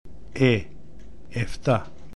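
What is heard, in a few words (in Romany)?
"Efta", Romani; Romska-alfabetet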